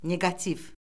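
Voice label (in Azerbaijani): "neqativ", Azerbaijani; Orfoqrafiya Lüğəti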